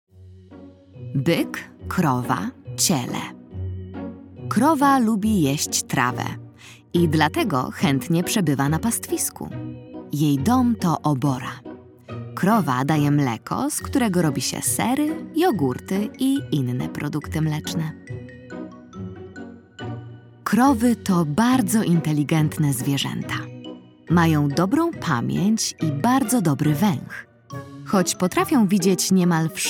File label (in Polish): narracja; czasopismo mis; lato; byk krowa ciele ciekawostka